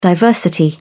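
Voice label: "diversity", British English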